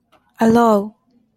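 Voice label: "alone", Japanese, female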